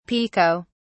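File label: "pico", British English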